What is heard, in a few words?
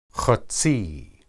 "hetsi", Hebrew